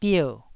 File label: "bier4", taiwanese Syllables